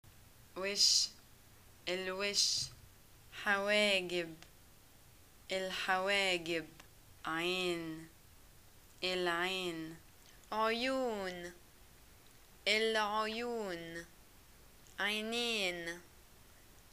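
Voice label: Egyptian face parts, arabic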